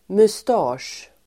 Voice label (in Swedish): "mustasch", Swedish